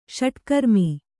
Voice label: "ಷಟ್ಕರ್ಮಿ", Kannada

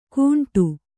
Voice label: "ಕುಂಟು", kannada

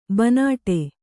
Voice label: "ಬೈನಾಡು", Kannada

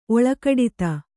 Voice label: "ಒಳ್ಗುಡುತೆ", Kannada

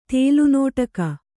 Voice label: "ತೇಲುನೋಟಕ", Kannada

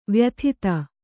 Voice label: "ವ್ಯಥಿತ", Kannada

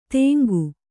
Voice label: "ತೆಂಗು", Kannada

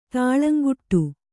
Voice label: "ತುಳುಂಕಾಡು", Kannada

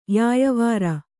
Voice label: "ಯಾಯಾವರ", Kannada

